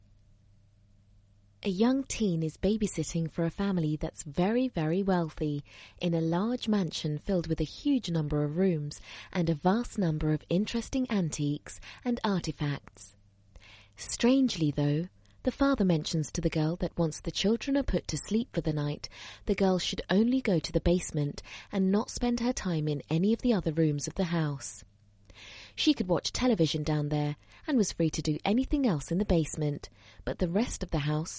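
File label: British English Narrative; voice demos; GoLocalise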